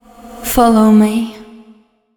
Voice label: fem spo, Vocals; Mutekki Media; Diamond Vocals 2

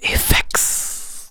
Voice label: "effects", spoken; Techno Trance Essentials

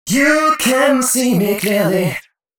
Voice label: male, Dance Ultra Voice hooklines; Mutekki Media; Dance Ultra Voice VOL-1

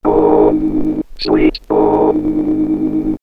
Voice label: Man Machine Sweet Ohm, robot vox; X-Static Goldmine

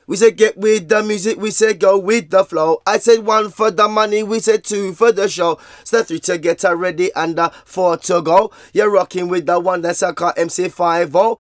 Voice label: Vocals; Jungle Frenzy 1

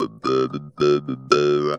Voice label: "OUOU", funky vocals; USB Soundscan vol.18; AKAI; TB MELODY

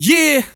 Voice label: Vocals

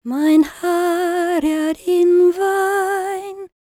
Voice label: WELSH F, World Voices

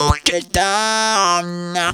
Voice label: "get down", Funky Vocals; USB Soundscan vol.18; AKAI

